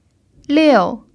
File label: "liu4", chinese